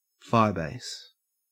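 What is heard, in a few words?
"firebase", Australian English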